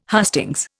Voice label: "hustings", Indian